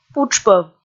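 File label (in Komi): "путшпӧв", Komi, female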